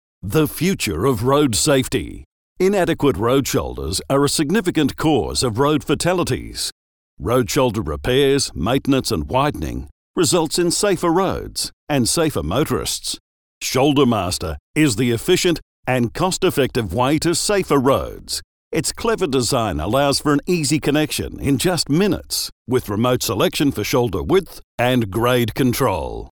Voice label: voice booth